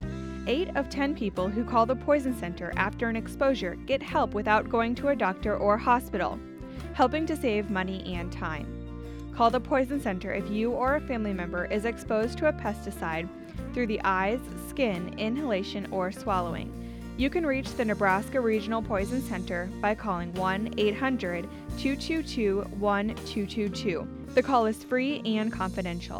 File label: Citizen Female